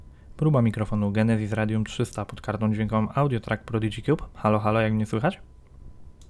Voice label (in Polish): Radium sam popfiltr